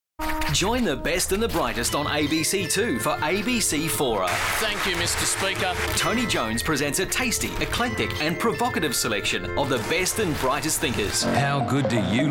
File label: promos